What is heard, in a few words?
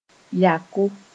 "yako", Moore